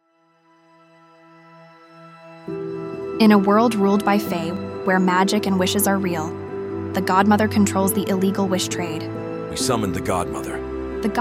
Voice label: trailer voiceover